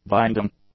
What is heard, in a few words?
"binding", English; adjectives